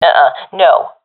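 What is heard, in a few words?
negative, professional female; vo; Counter Strike Model Pack; CS:GO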